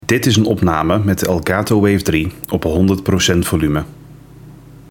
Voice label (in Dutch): Microfoonopname Elgato Wave3 100 volume; Review: Elgato Wave:3